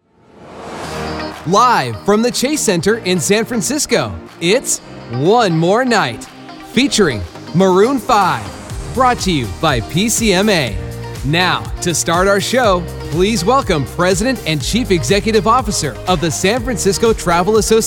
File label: energetic announcer